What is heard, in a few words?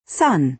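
"sun", American English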